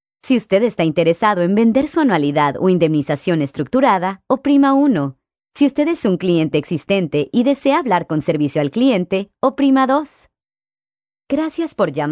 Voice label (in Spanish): Spanish South America, F